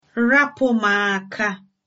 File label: leave me alone, igbo